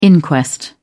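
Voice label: "inquest", British English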